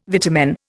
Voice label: "vitamin", Indian